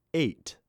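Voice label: Eight, male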